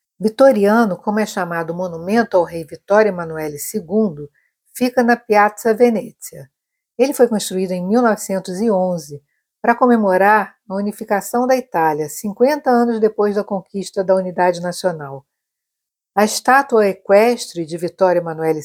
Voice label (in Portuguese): Audioguia; Vittoriano; Barriga do Cavalo